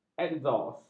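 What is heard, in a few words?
"ekzos", Malay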